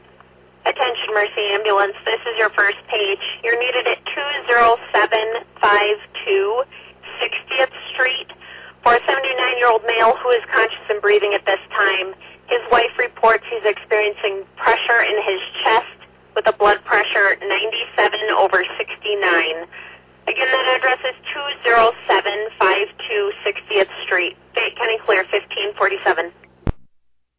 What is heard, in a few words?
Oelwein Ambulance, dispatch